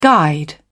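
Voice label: "guide", British English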